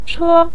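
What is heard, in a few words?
"che" Mandarin Chinese, neutral tone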